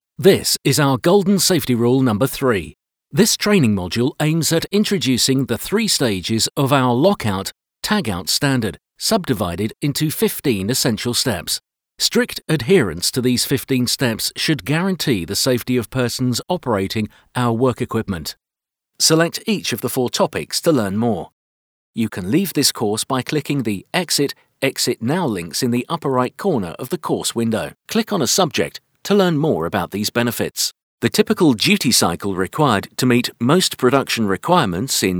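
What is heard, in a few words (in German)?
EngUK Kommentar Freundlich, British English, commentary, friendly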